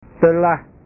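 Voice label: "telah", Indonesian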